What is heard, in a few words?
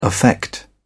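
"affect", English, uk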